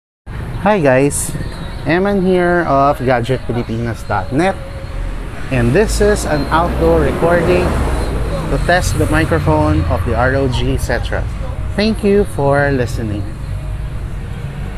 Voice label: Outdoor; ROG Cetra